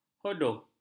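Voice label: "hodoh", Malay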